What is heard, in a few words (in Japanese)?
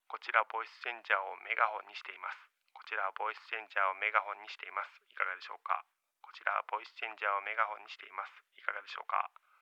ボイスチャンジャー メガホン